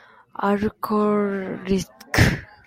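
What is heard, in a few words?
"alcoholic", Japanese, female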